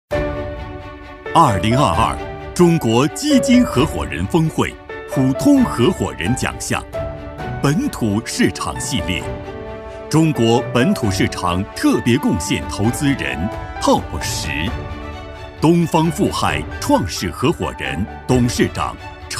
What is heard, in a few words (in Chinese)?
男 颁奖配音 峰会颁奖; 2022中国基金合伙人峰会颁奖